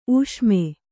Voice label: "ಊಷ್ಮ", Kannada